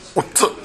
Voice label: "ottu", minami yamagata

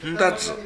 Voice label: "ndazu", minami yamagata

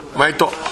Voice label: "maeto", minami yamagata

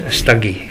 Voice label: "sitage", minami yamagata

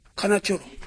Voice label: "kanachoro", minami yamagata

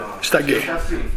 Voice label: "sitage", minami yamagata

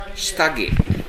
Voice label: "sitage", minami yamagata

